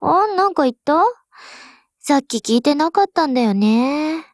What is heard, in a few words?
DIALOGUE JP; M249SAW